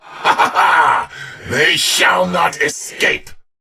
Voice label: Patrol, en; Warframe; Battle; Dax; DaxKnight